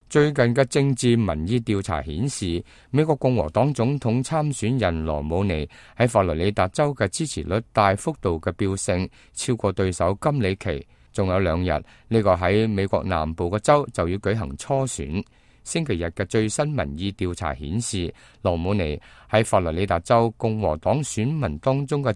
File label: cantonese, news